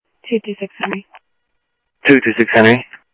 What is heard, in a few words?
scanner audio, Sheriff; South Scottsdale; MCSO - EAST; Sheriff-EastCnty